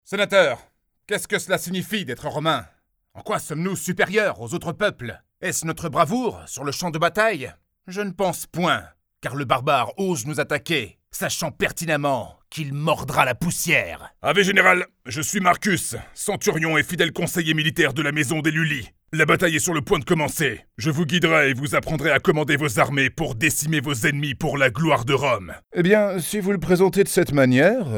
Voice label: french video games